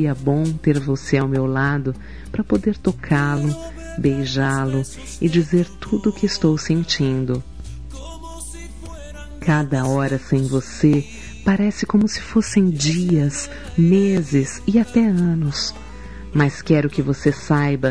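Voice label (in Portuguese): ROMANTIC AMOR DISTANTE FEM, Telemensagens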